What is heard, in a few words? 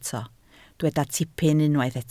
"tipyn", Welsh